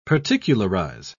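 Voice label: "particularise", British English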